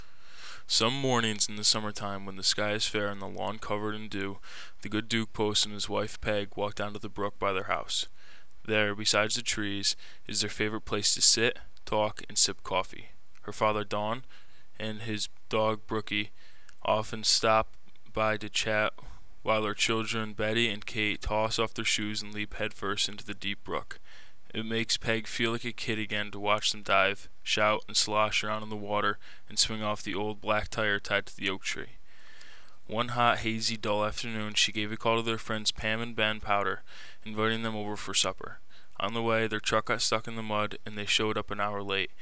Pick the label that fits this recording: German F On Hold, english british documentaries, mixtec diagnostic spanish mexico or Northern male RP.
Northern male RP